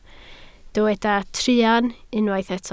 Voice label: "truan", Welsh